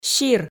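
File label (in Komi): "сир", Komi, female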